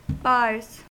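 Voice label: "bars", US English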